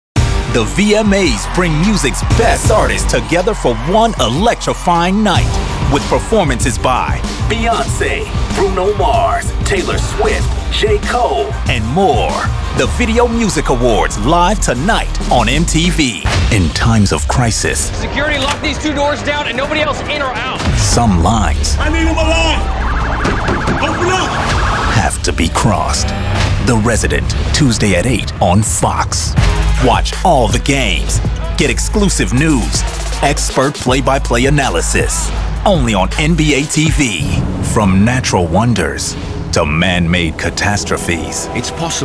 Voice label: promo voice-over